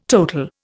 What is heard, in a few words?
"total", Indian